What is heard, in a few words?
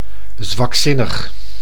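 "zwakzinnig", Dutch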